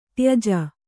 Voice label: "ತ್ಯಜ", Kannada

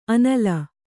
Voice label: "ಆನಿಲ", Kannada

